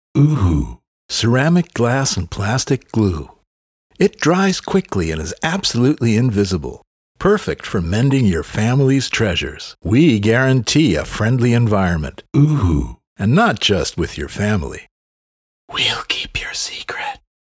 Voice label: TV spot voice; UHU

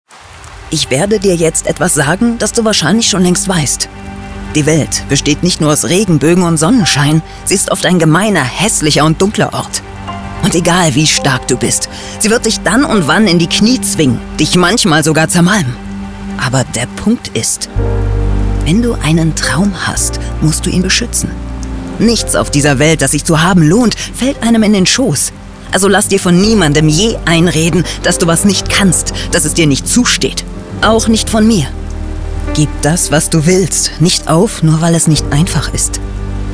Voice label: storytelling

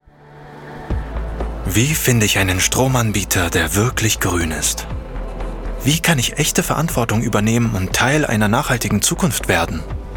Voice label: Commercial Green Energy; LOFT VOICES

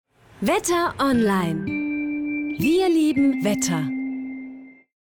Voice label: commercial native german; wetteronline

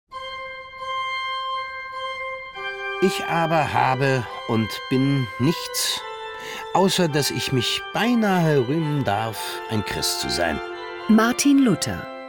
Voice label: trailer; luther

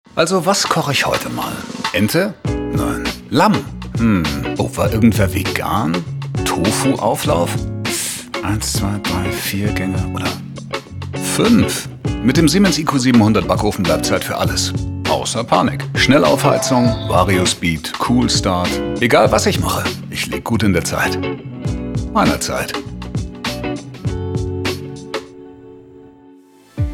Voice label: TVC; SIEMENS

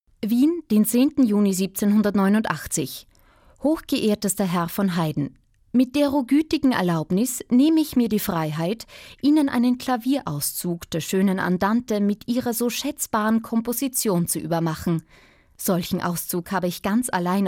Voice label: audioguide; haydn genzinger briefe

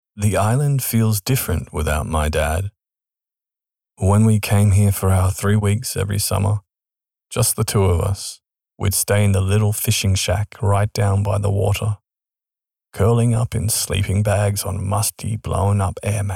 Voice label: VO AUDIOBOOK; LAKE HOLIDAY; LOFT VOICES

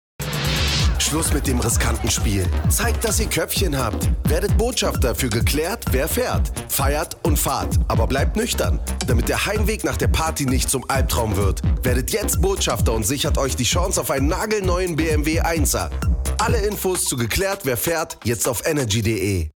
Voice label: radio spot; geklärt wer fährt; radio energy

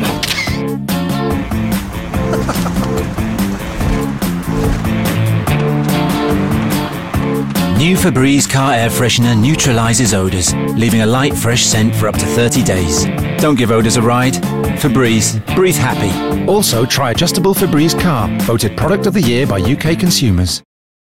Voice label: voice over; febreze

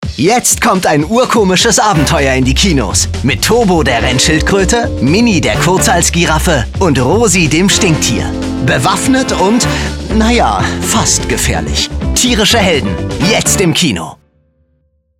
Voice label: Trailer Family Animation, German; voice

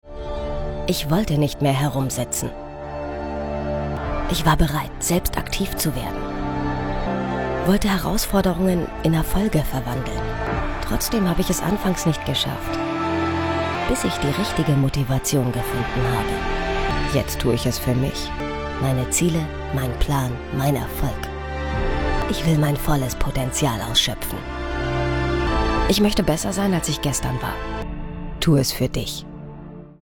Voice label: Web Spot; Runtastic

